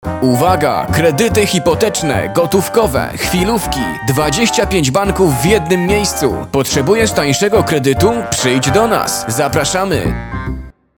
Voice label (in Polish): reklama